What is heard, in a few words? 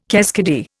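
"keskidee", Indian English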